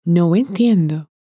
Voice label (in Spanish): "no entiendo", Spanish